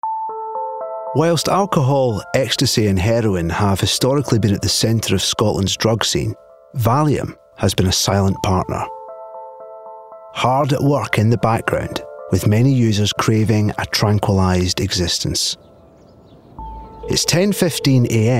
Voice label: DOCUMENTARY; Scotlands Valium Problem

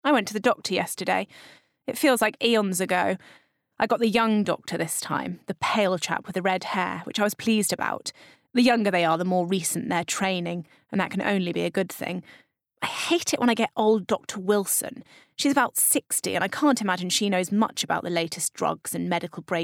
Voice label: Bookread

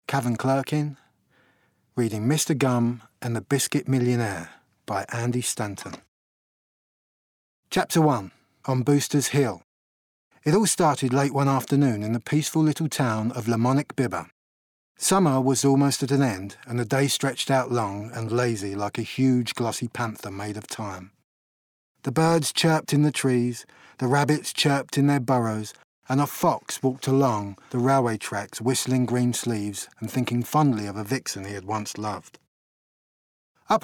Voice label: Bookread

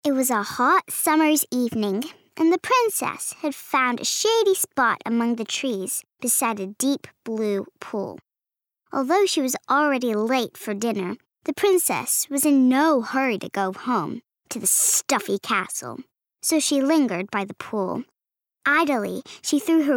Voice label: Bookread

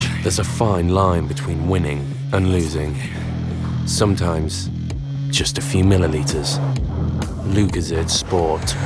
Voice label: COMMERCIAL; LucozadeSport

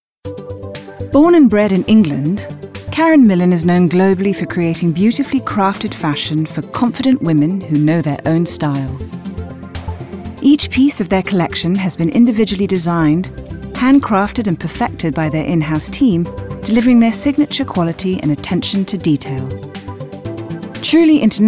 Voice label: Narrative; Karen Millen

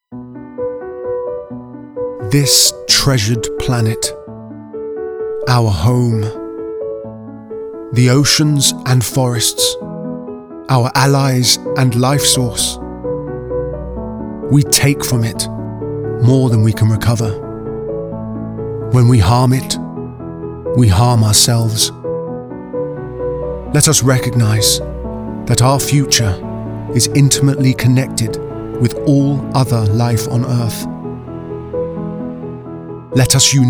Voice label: Commercial; WWF

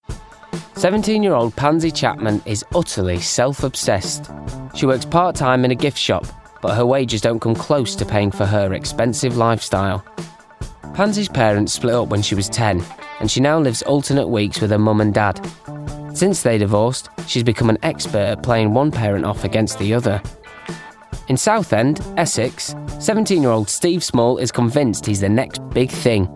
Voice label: TV narration

